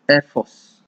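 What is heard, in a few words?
"airforce", Nigerian Pidgin, male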